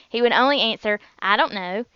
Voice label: southern